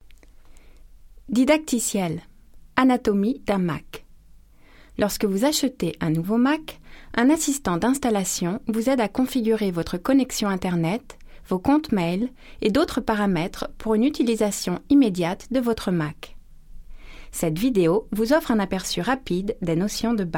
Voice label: French Coprorate